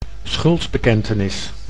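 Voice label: "schuldbekentenis", Dutch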